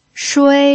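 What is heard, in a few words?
"shui", Mandarin Chinese pinyin, first tone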